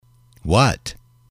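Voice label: "what", male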